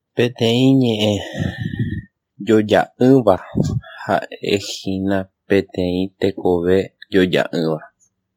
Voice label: guarani